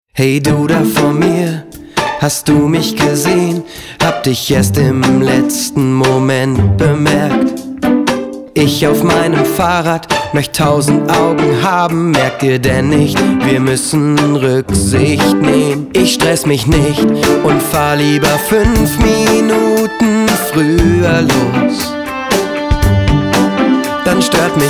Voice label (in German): Radiospot; Radverkehr